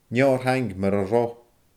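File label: "neo ar thaing mura robh", Scottish Gaelic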